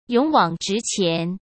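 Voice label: "勇往直前", Mandarin Chinese; hsk; chinese-flashcards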